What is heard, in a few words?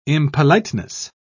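"impoliteness", British English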